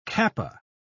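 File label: "kappa", British English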